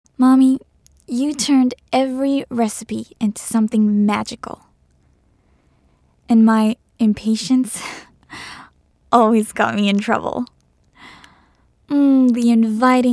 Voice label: motherly